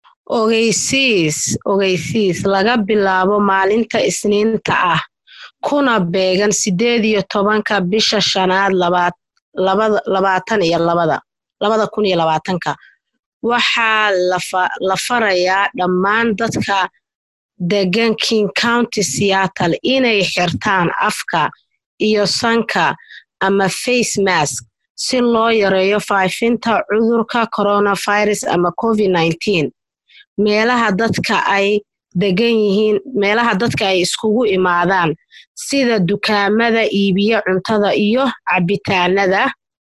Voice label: somali